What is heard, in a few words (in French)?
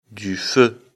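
"feu", French; afrikalan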